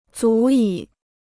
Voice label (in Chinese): "足以", Chinese